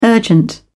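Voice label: "urgent", British English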